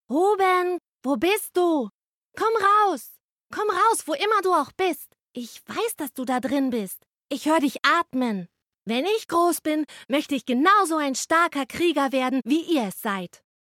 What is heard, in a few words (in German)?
Naiv kindlich